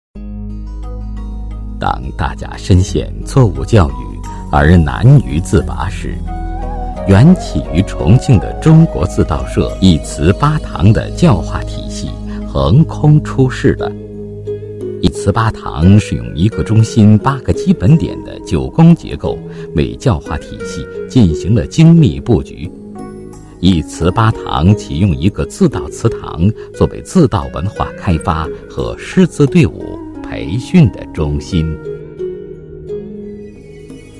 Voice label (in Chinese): 男 解说; 聚鑫一祠八堂 教育